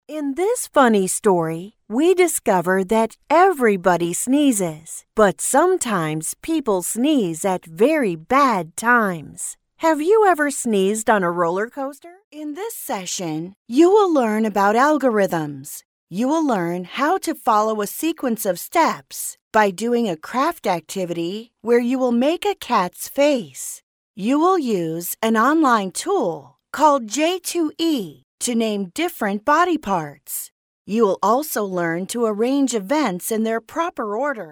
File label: Childrens Learning Demo